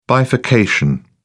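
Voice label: "bifurcation", English, British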